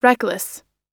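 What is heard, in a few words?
"reckless", American English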